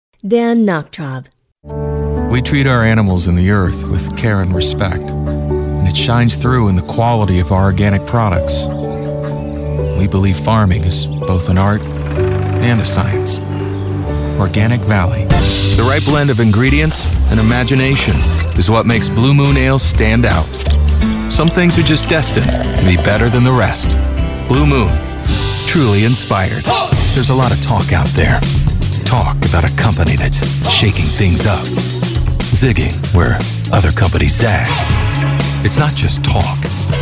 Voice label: Commercial

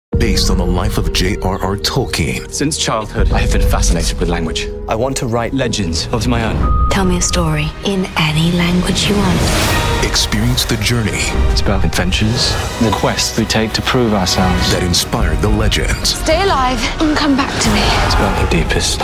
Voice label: radio; tolkien

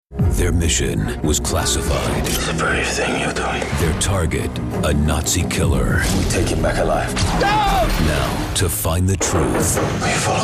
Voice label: Trailer

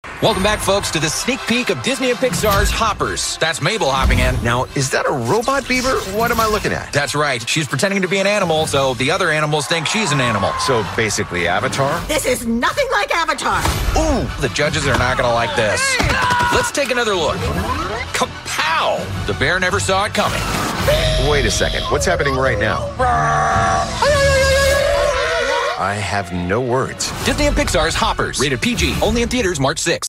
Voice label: TV Spots; Hoppers

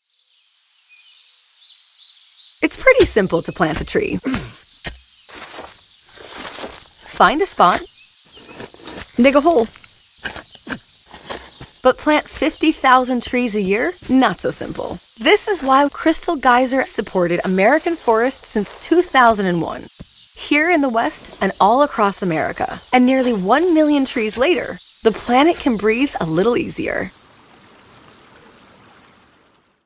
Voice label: Commercials; Crystal Geyser

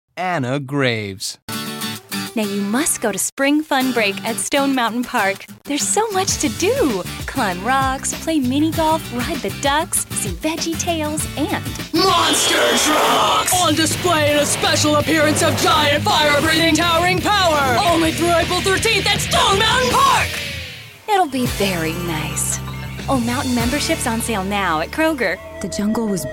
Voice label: commercial

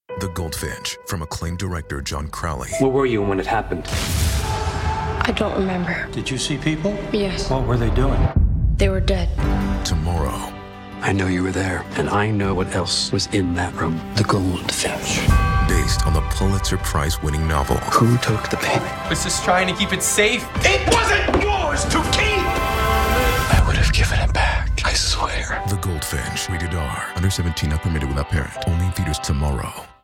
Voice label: radio; goldfinch

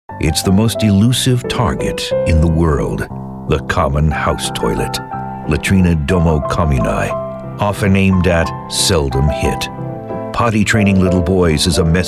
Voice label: commercial

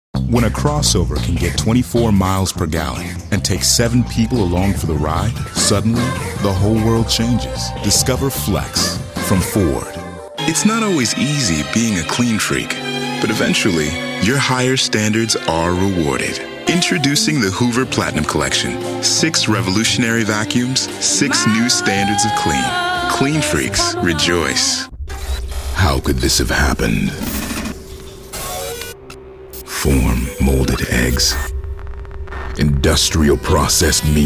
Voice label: Commercial

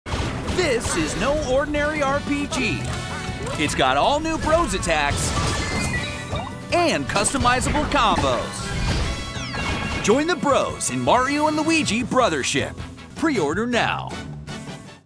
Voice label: TV Spots; Mario & Luigi: Brothership

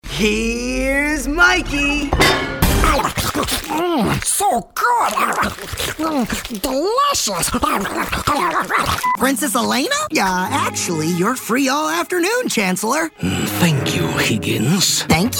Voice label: animation

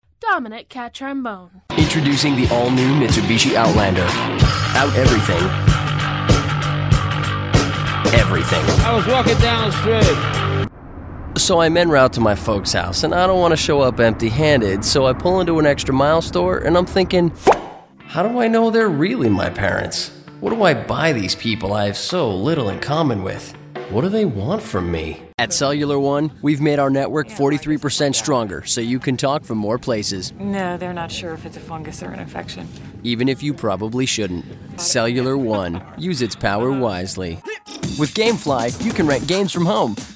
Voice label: commercial

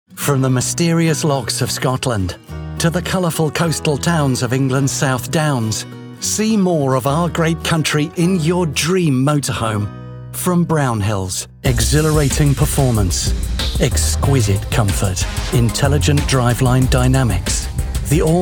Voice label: Commercial

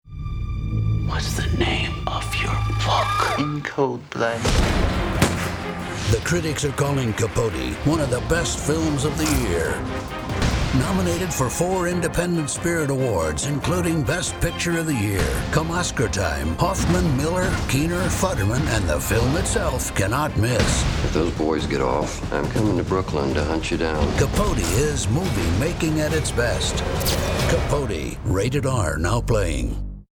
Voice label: Trailer

